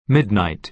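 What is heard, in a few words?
"midnight", American English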